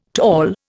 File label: "tall", Indian